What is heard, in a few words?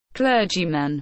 "clergyman", English